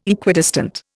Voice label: "Equidistant", Indian

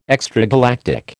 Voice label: "extragalactic", American

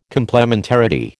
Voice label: "complementarity", American

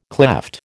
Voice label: "klepht", American

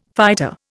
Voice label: "fighter", Indian

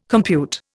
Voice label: "compute", Indian

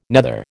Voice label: "nether", American

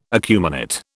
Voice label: "acuminate", British

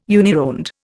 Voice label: "unironed", Indian

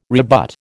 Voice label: "rebut", American

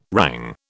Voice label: "rang", British

